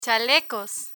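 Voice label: "chalecos", Spanish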